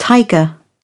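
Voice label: "tiger", British English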